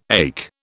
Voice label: "ache", British